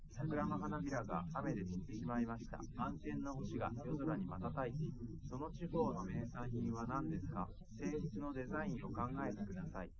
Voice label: male liverec 130ms 5cm; SiSEC08; SiSEC underdetermined

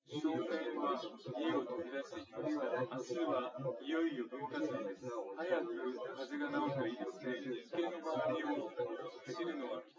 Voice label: male; SiSEC08; SiSEC underdetermined

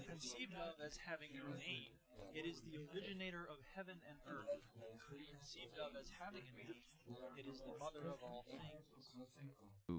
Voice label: male inst sim; SASSEC07

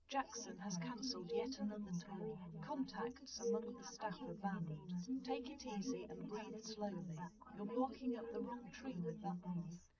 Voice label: female liverec 130ms 5cm; SiSEC10; underdetermined

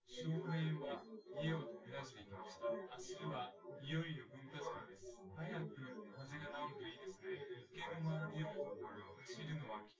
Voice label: test male synthconv

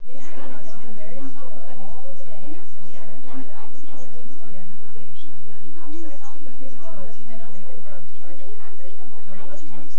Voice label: female synthconv 1m mix; SASSEC07

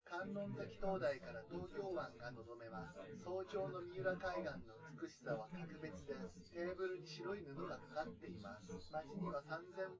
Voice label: male synth conv

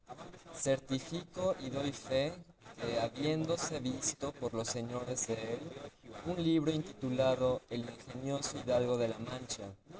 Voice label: male inst src; SiSEC08; SiSEC underdetermined

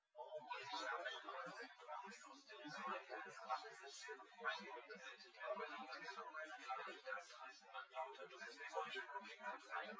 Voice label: male; SiSEC08; SiSEC underdetermined

